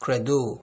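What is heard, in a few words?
"credo", Nigerian Pidgin, male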